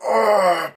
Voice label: hurt; spackenmobs